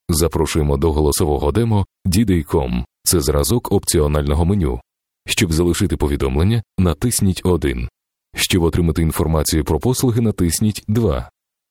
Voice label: voice over Oekraine man

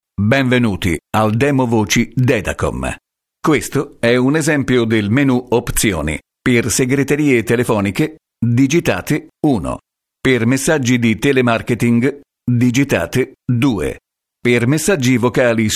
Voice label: Voice over Italiaans man

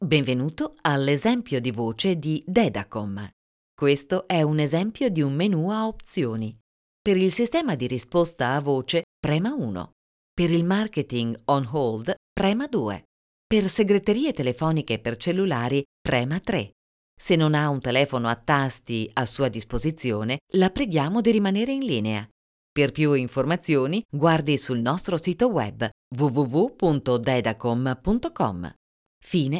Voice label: Voice over Italiaans vrouw

